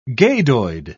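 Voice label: "gadoid", British English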